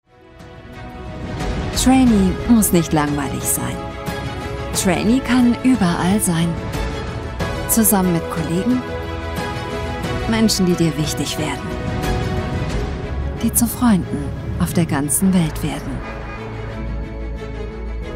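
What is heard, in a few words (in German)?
Werbung Recruiting natürlich jung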